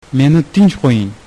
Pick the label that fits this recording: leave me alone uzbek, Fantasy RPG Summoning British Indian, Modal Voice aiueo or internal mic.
leave me alone uzbek